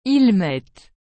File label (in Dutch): "ils mettent", frans; mettre